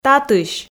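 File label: "татысь", Komi, female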